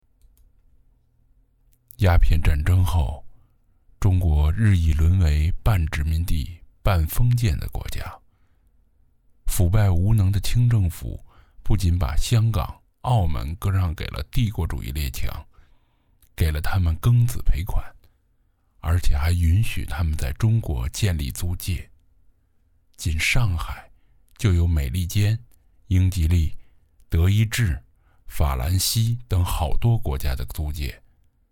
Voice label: 低沉旁白

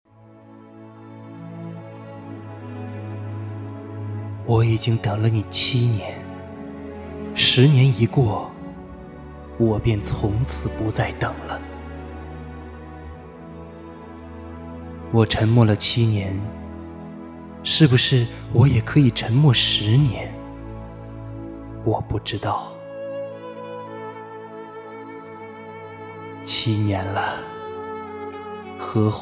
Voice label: 深情试音

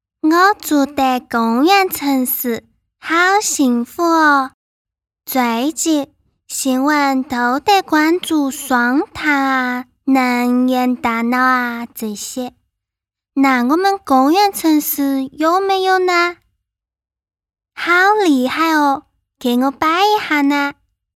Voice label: 四川话仿童呆萌熊猫, 女

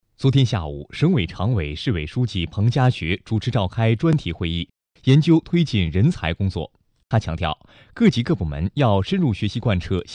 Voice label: 新闻 播报

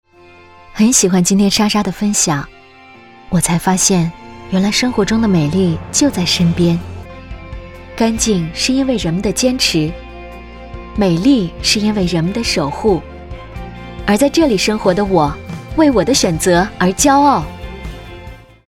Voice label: 旁白年轻自然; 守护城市洁净

